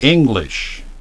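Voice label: "english", english pronunciation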